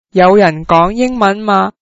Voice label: does anyone speak english, cantonese